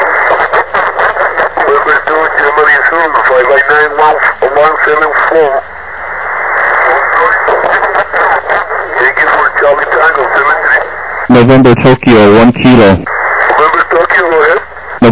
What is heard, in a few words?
USB 28MHz; ARRL10M